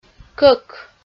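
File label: "кӧк", Komi-Zyrian, female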